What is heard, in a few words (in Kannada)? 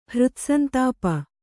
"ಹೃತ್ಸಂತಾಪ", Kannada